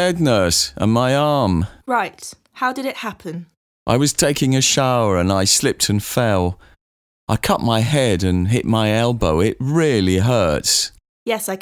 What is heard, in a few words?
Conversation; Bedside Manner Beginner